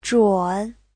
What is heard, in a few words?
"zhun3", chinese; Giáo trình Hán ngữ